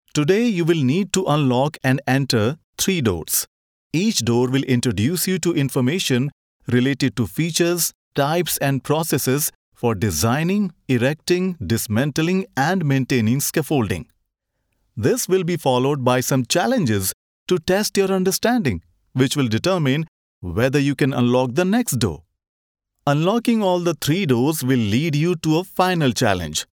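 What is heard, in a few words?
Hindi English E-learning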